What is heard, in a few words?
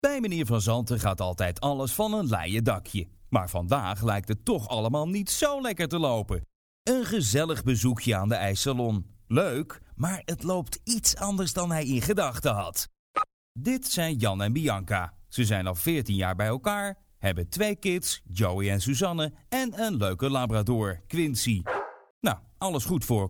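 Dutch male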